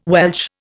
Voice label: "Welsh", Indian